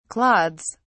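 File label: "clods", British English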